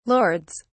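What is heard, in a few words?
"lords", British English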